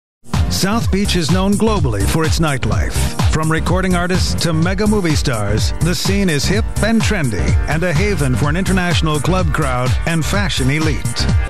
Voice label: narr, male voice talent